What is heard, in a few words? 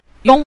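"yong", Mandarin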